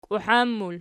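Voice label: "kiuhan" plural, Archi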